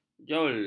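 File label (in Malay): "joule", Malay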